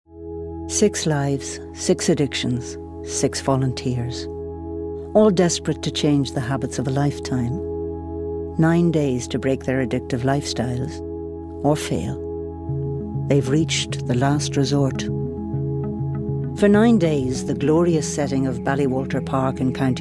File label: Narration